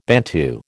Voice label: "Bantu", American